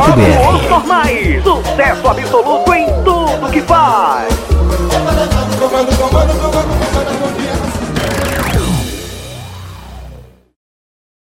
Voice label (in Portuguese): Spot bloco harmonia do samba